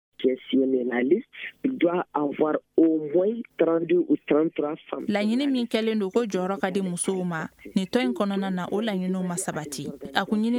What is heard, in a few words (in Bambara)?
magazine bamanankan